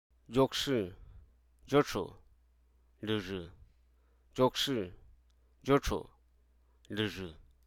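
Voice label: Hruso Aka; cage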